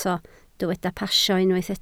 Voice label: "pasio", Welsh

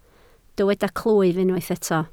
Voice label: "clwyf", Welsh

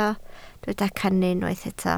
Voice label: "cannu", Welsh

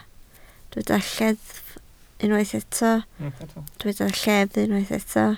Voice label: "lleddf", Welsh

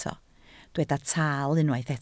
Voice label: "taal", Welsh

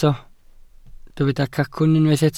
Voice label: "cacwn", Welsh

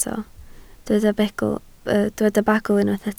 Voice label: "bagl", Welsh

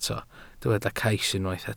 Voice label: "cais", welsh

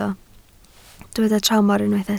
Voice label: "tramor", Welsh

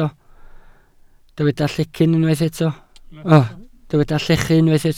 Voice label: "llechu", Welsh

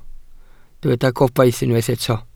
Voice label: "gobaith", Welsh

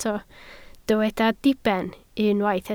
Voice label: "diben", Welsh

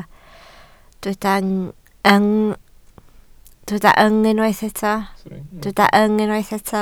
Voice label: "yng", Welsh

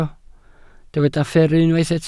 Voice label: "fferru", Welsh

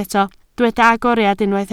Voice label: "agoriad", Welsh

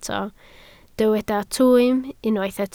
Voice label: "twym", Welsh

